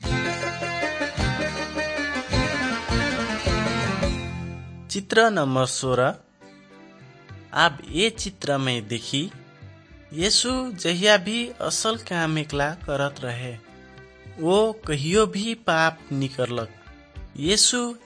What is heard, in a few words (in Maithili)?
good news maithili, nt drama